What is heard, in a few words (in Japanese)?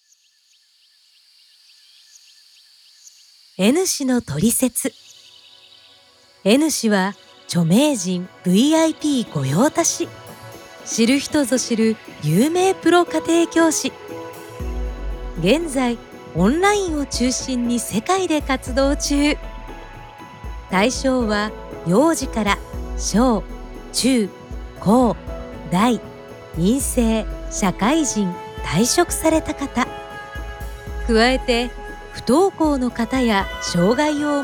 BGM付き音声